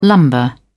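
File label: "lumbar", British English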